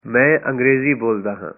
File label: punjabi I speak English